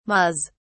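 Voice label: "mas", British English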